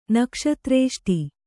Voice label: "ನಕ್ಷತ್ರೇಷ್ಟಿ", Kannada

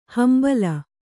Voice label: "ಹಂಬಲ", Kannada

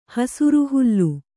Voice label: "ಹಸುರುಹುಲ್ಲು", kannada

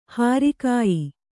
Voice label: "ಹಾರಿಕಾಯಿ", Kannada

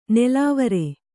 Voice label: "ನೆಲವರ", Kannada

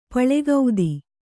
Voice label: "ಪುಲಕಿತ", Kannada

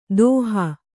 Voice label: "ದೋಹ", Kannada

